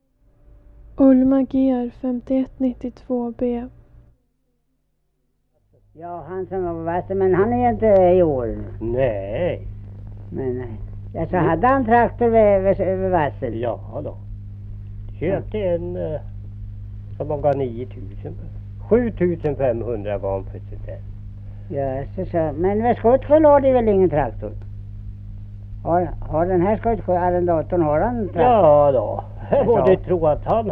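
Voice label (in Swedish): Ludgo Södermanland, dialekter, svenska sagor; Folkminnen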